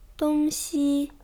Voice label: "dong1xi", zhongwen